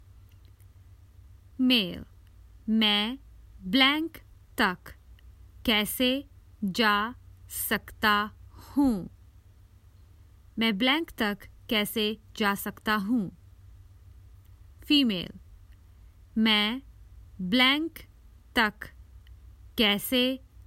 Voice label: How do I get to, Common Hindi Phrases for travel